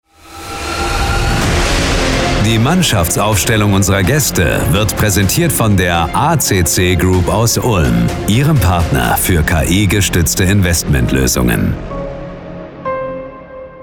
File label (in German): Stadionansage; Stadionansagen – Sponsoring; Gast